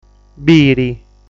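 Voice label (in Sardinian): "Biri", Sardinian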